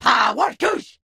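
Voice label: Grunt enter vehicle driver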